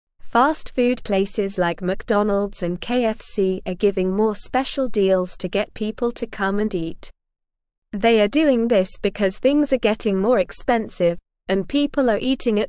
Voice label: English Beginner SLOW Reading; Fast Food Places Offer Deals to Attract Customers